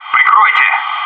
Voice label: "cover me", bot